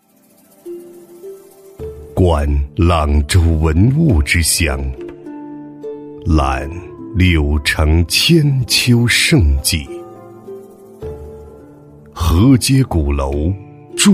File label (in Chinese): 男 广告 浑厚韵味; 沉稳大气; 央视广告《酒》